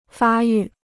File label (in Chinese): "发育", Chinese